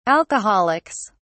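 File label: "alcoholics", British English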